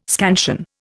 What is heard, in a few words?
"scansion", Indian